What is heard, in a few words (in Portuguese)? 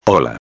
"ola", espanhol; palavra do dia